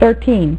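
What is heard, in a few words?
"thirteen", female; MacCribbage; InterStellar MacCribbage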